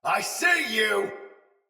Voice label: See Player Shout Soldier Sword Agent Male; Battle; Sinecure Melee